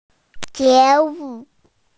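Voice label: "awareness", Mandarin, hearing-impaired; jue2wu4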